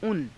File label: "una", hindi